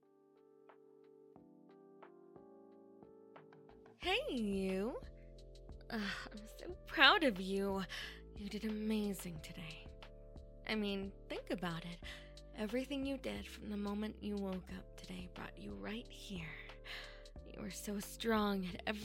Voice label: Positive Message, asmr

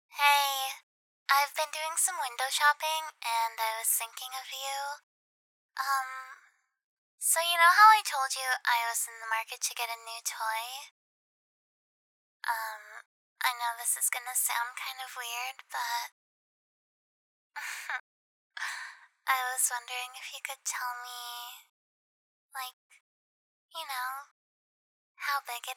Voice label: VOICEMAIL; toy shopping

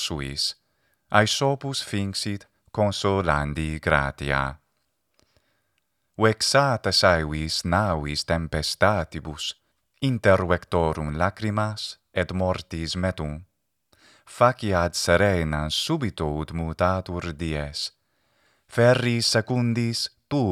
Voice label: A Latin Reader Phaedrus, Gubernator et Nautae

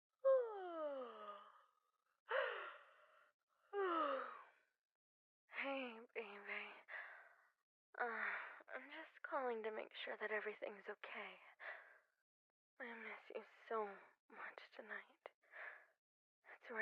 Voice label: positive message, asmr

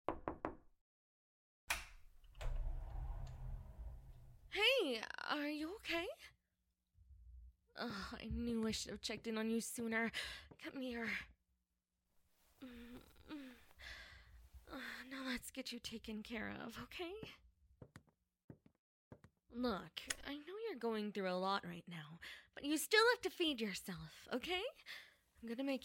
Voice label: Positive Message, asmr

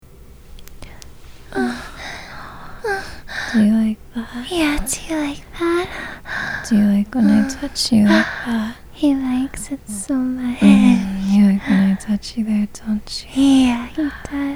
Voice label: deep voice test

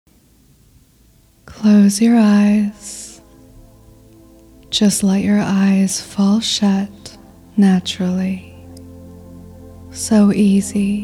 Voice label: hypnosis; bubble brain

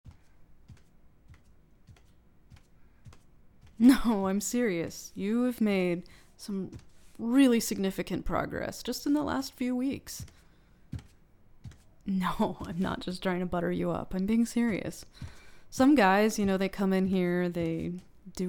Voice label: F4M Personal Trainer Dom

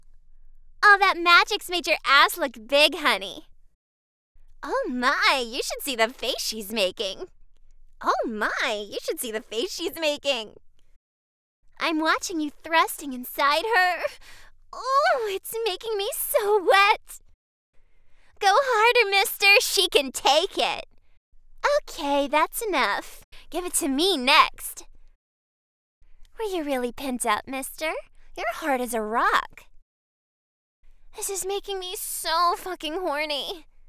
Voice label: fairy commentary

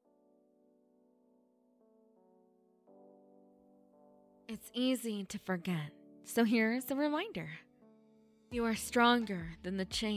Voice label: Positive Message, asmr

